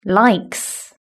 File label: "likes", british english